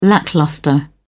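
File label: "lacklustre", British English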